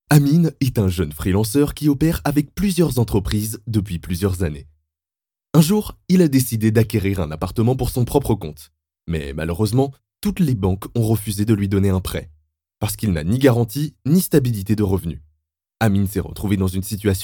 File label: French Voice Over